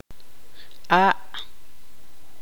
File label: tzutujil; pierna